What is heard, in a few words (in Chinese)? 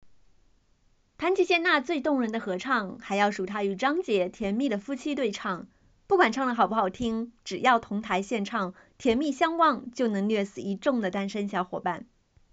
演绎类